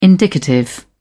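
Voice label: "indicative", British English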